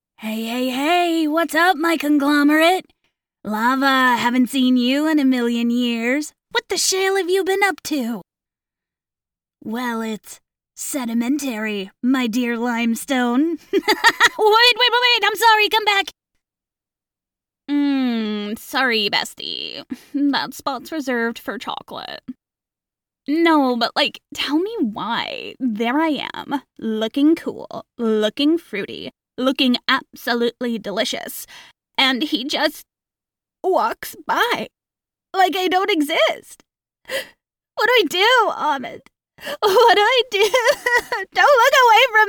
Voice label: Character